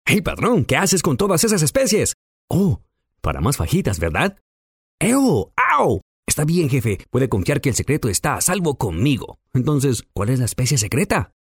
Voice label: Natural person

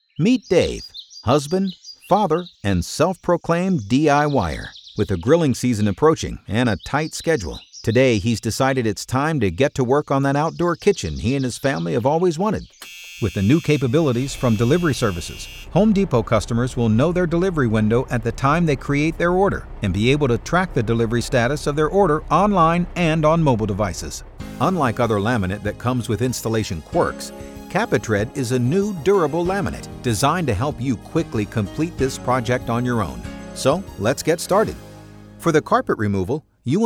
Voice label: Explainer

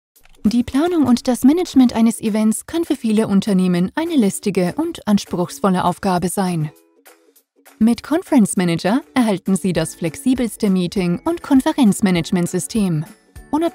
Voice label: Explainer Video

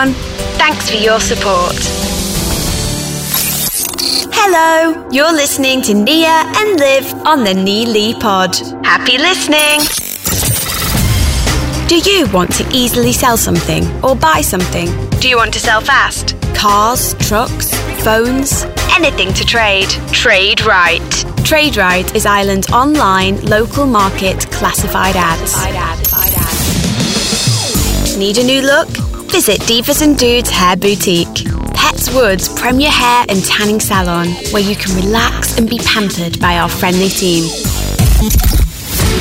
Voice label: Podcast Business

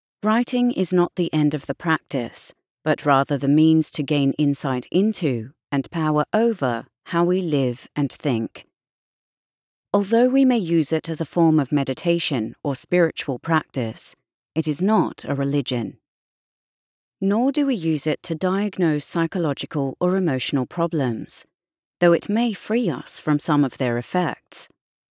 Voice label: Audiobook Non-Fiction UK British Informative; SelfHelp; Writing The Mind Alive

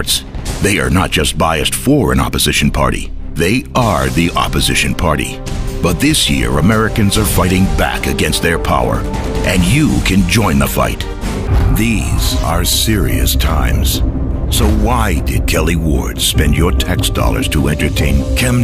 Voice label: Political Ads

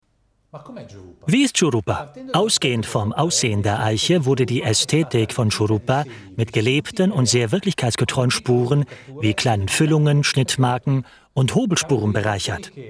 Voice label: dynamic dubbing sample GERMAN; mirage

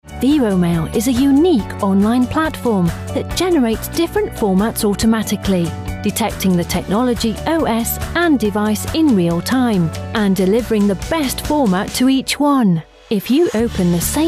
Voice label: Corporate Explainer

